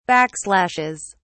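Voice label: "backslashes", British English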